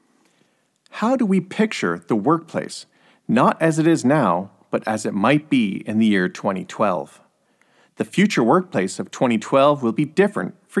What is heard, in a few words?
Corporate Narration; Voice Over